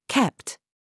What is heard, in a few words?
DRESS TRAP, minimal pairs